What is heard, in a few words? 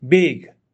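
"speed", Nepali; force and motion new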